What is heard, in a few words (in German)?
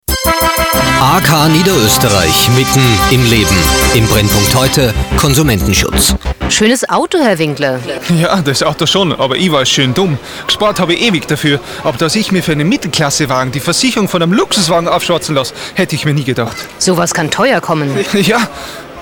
spot, Sprechproben